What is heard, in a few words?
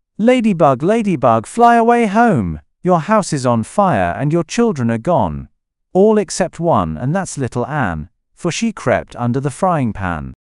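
male British, classic rhymes; Ladybug Ladybug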